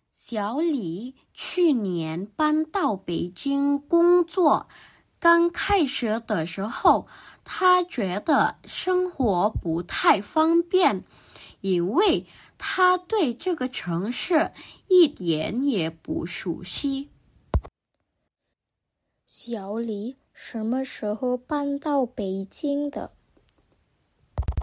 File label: Mandarin; mandari placement test hsk3